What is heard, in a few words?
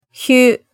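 "hyu", Japanese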